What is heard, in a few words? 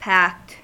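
"packed", American English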